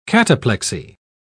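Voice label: "cataplexy", British English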